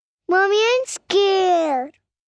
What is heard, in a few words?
Mommy Im Scared, Scared, 1 girl, 5 yrs; Exclamation; VoiceBox